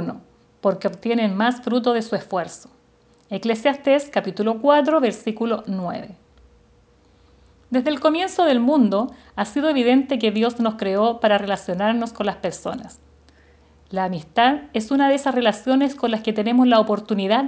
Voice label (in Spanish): devocional